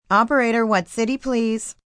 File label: Operator City, Telephone operator, female